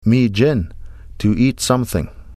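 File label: "Miijin" Ojibwe; Miijin to eat something; Nish Tales; Nanabush Looses The Meat; Conjugated Ojibwe Words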